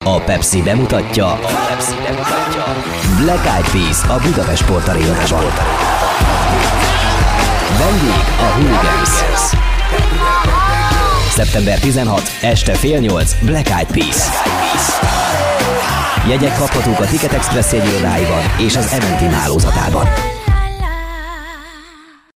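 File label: Hungarian, commercial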